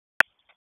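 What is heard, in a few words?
Police Downtown District Dispatch, p25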